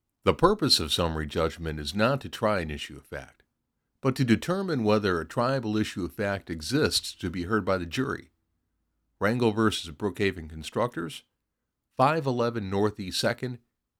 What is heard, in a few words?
e-learning demo